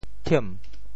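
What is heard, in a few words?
"thiem2", Teochew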